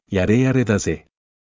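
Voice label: anime, Japanese, Hilarious Anime Quotes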